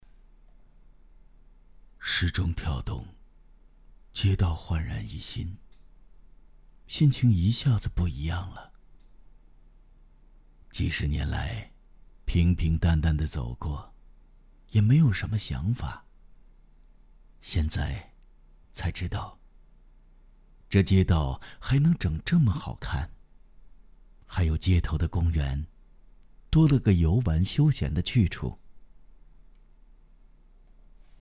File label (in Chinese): 男,沧桑老人内心独白类; 管城时钟老市民